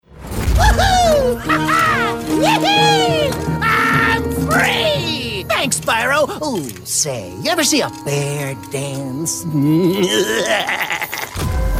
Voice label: animation men characters, voices men

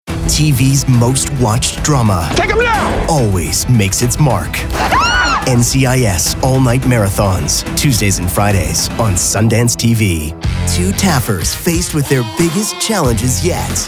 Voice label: promo men

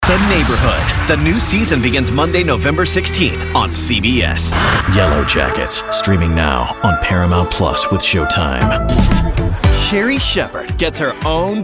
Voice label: promo men

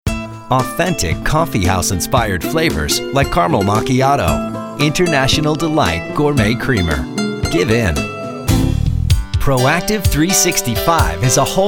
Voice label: commercial, men

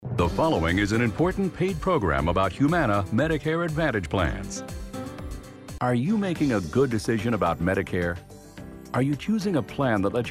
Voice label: narration infomercial

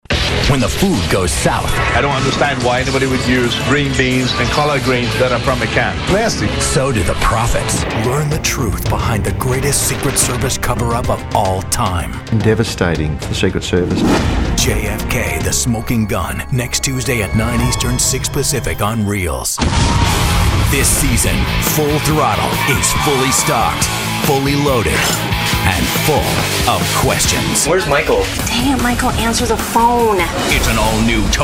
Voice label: promos, men

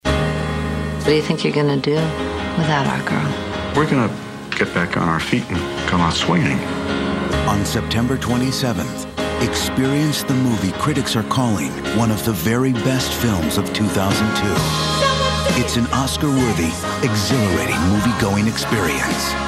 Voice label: trailer men

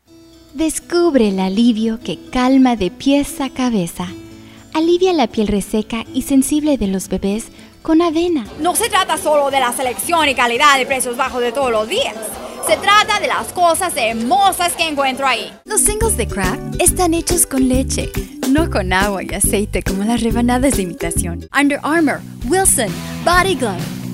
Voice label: languages spanish

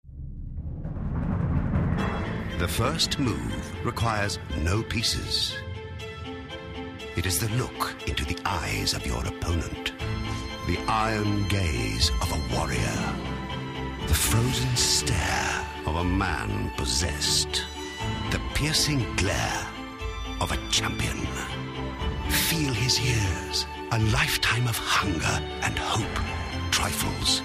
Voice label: promo male

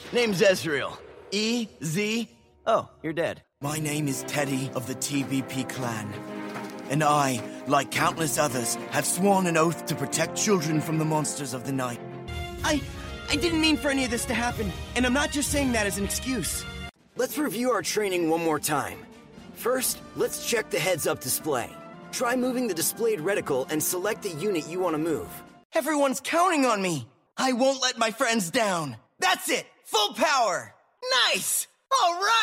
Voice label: animation men Interactive

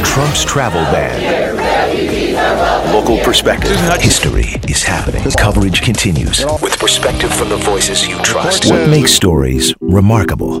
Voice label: radio men news talk; radio imaging

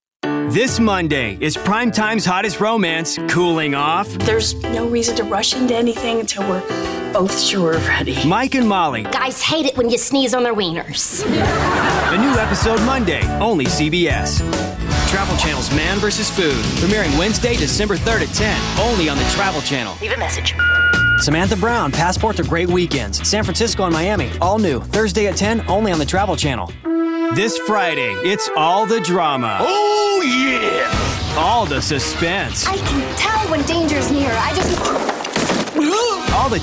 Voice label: promo, men

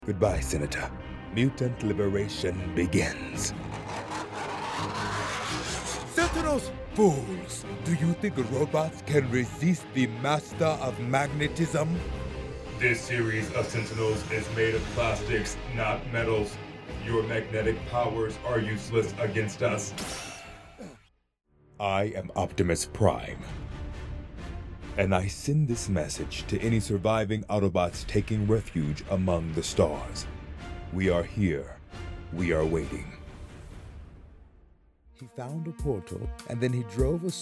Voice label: animation men

